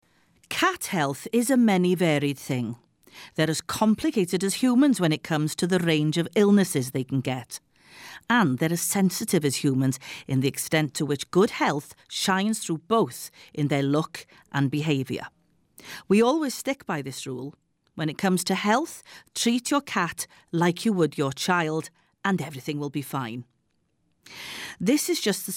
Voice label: English